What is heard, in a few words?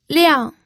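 "liang", Mandarin Chinese, fourth tone; pinyin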